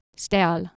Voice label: "steall", Anglo Saxon

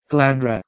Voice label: "ghlh2dhro" PIE

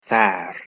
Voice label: "thaer", Anglo Saxon

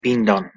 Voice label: "bindan" Anglo Saxon

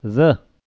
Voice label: "za", Pashto

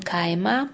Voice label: "kaima", Lithuanian

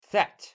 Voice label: "that", Proto Germanic

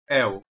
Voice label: "heu", Proto-Germanic

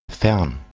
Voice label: "fearn", Anglo Saxon

